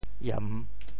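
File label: "iem3", Teochew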